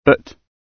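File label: "but", British English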